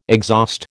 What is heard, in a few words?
"exhaust", American